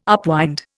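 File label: "upwind", Indian English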